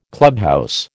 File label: "clubhouse", American